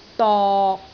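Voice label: "dok6", Cantonese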